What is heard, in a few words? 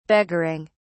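"beggaring", en, uk British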